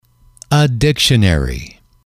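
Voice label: "a dictionary", male, English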